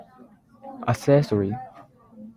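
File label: "accessory", Japanese, male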